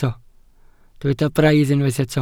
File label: "braidd", Welsh